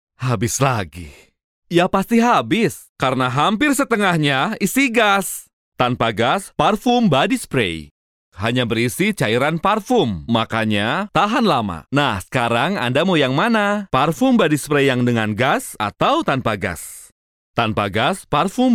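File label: Indonesian, commercial; GoLocalise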